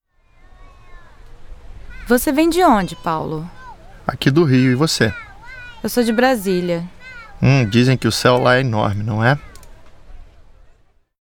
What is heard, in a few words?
dialog; linguadagente